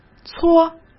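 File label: "搓", Mandarin Chinese; hsk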